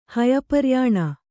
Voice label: "ಹಯಪರ್ಯಾಣ", kannada